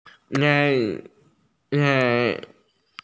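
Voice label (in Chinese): "bai2 se4" Chinese, female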